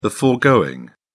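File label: "foregoing", en, uk British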